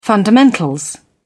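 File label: "fundamentals", British English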